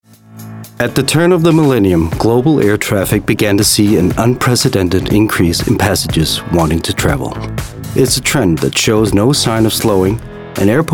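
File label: US English Corporate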